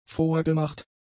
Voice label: "vorgemacht", German; adjectives

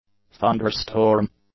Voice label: "thunder-storm", English; nouns

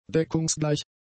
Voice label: "deckungsgleich", German; adjectives

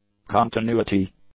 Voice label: "continuity", English; nouns

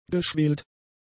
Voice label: "geschwelt", German; adjectives

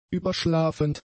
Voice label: "überschlafend", German; adjectives

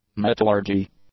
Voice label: "metallurgy", English; nouns

